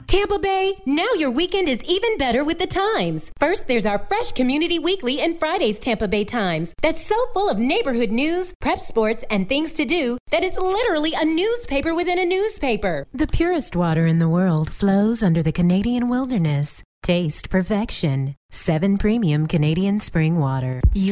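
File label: American commercial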